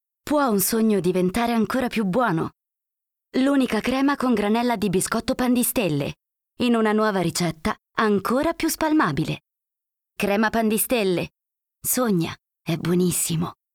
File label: commercial, Italian, female